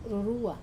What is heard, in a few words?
"rurua", soursop, Wamesa